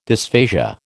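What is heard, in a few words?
"dysphasia", American English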